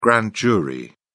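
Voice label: "grand jury", English, British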